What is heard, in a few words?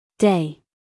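"day", American English female; everyone-can-use-english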